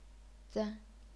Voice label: "zan0", chinese pinyin